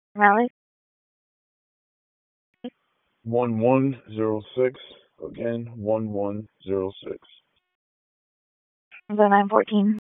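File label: Raleigh Police Northeast District Dispatch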